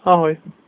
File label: goodbye, slovak